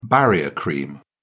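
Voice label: "barrier cream", British English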